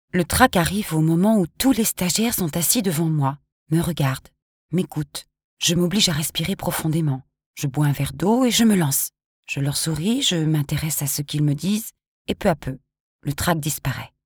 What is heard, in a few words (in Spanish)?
curso interactivo interpretacion